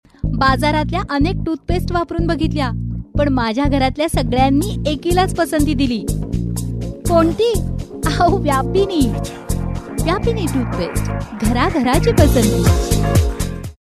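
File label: Marathi, female, commercial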